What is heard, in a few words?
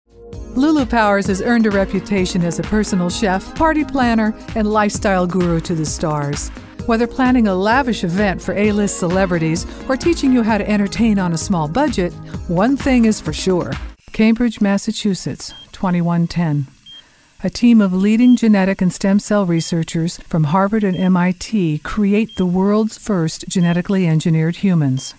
Narration